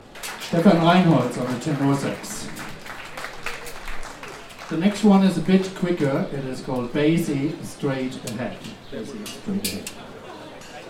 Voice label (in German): Ansage